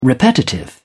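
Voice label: "repetitive", British English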